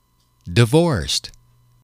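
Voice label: "divorced", English, male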